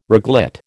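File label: "reglet", American English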